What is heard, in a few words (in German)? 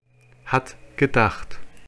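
"hat gedacht", German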